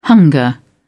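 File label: "hunger", English, British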